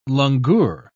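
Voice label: "langur", British English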